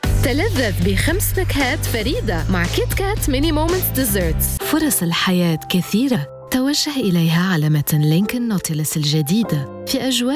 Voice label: Arabic Corporate Narrations